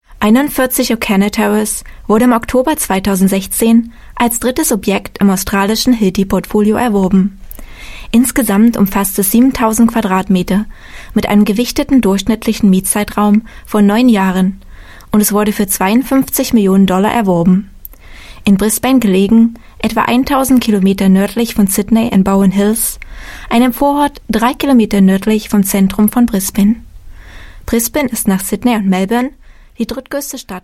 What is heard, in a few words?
German, German Female; Ocanner Street